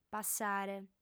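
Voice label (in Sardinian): "passare", female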